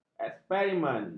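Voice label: "eksperimen", Malay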